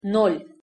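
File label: "nol", Russian pronunciation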